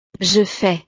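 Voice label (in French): "je fais", French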